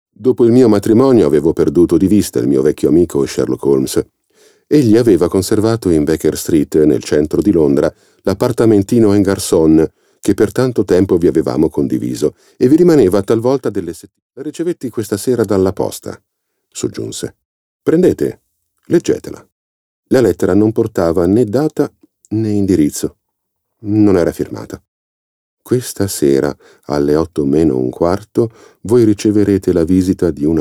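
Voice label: detective narration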